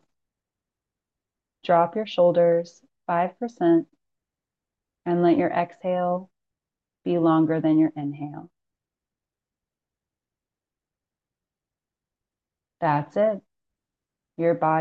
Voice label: Grounding Breath; 15-Second Micro